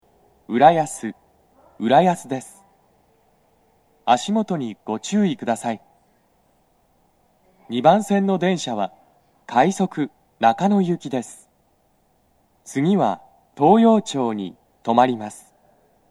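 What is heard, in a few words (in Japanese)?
到着放送, 男声, 放送は「快速 三鷹行」です。; TOA円型での収録です。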